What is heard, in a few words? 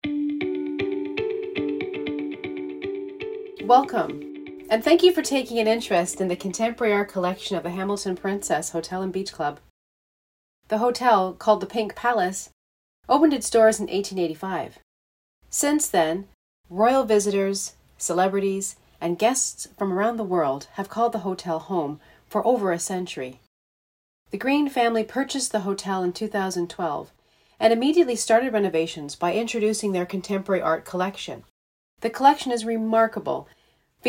introduction voiceover